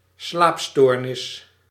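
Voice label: "slaapstoornis", Dutch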